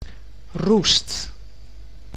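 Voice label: "roest", Dutch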